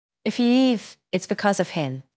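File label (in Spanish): Voice Acting es F, Spanish, female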